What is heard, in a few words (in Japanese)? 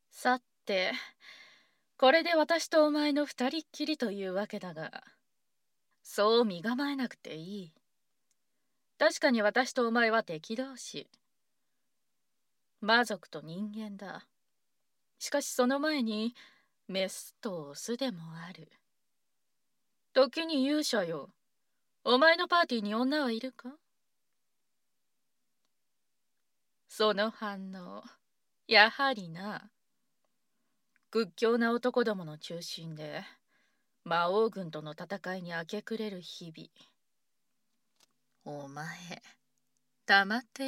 本章 環境音無し、効果音有り